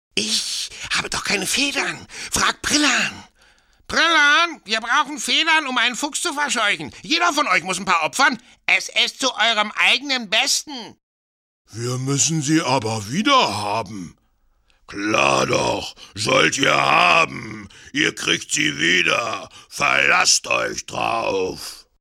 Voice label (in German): Trickstimme